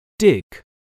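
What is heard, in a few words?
"dig", English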